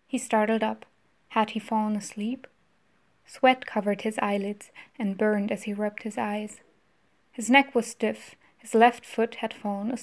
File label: English; wissenschaftliche kurzgeschichten